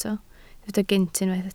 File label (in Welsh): "gynt", Welsh